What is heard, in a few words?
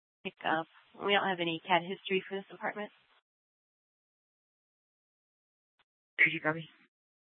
Mesa PD Central Dispatch; TOPAZ Regional Wrlss Cooperative (TRWC) - Mesa Simulcast